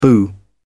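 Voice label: "boo", British English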